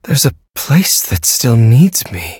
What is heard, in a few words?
levelup, en; cookie run